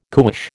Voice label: "cuish", American